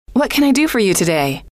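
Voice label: what Can I Do For You Today, female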